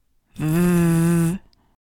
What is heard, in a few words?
"th" hard